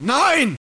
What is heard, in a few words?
zombie pain; HALFLIFE; Gateway